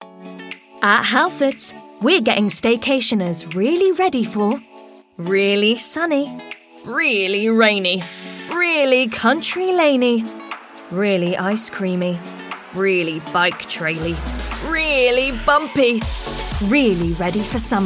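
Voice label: British English, Commercial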